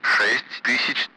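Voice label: Speech, Russian, Numbers, 60; Allied Flight; DCS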